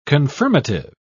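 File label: "confirmative", British English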